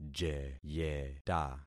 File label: "jeyeta", concat syllables; Affixing experiment